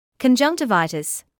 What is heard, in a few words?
"conjunctivitis", English